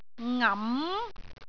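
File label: "ngam2", Cantonese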